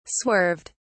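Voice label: "swerved", British English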